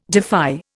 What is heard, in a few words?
"defy", Indian